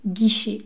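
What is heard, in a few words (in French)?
"guichet", French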